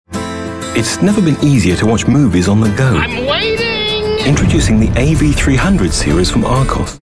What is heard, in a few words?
soft spoken